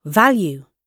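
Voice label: "value", British English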